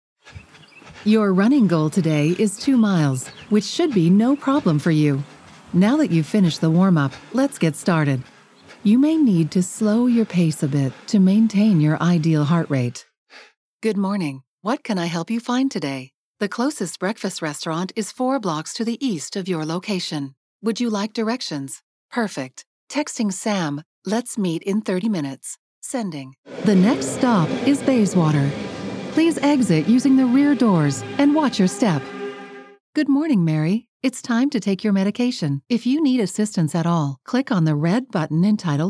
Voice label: english canadian